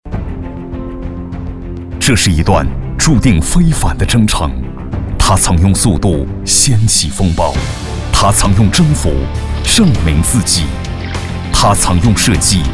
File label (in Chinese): 广告 汽车 稳重; 奥迪全新